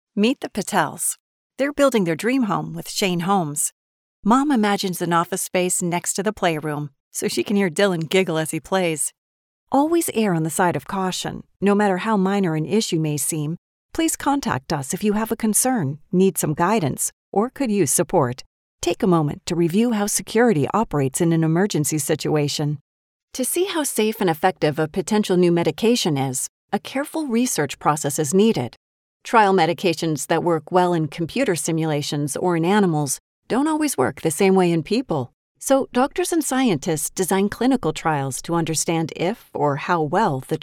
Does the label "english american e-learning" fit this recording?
Yes